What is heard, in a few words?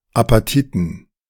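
"Apatiten", German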